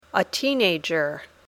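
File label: "a teenager", English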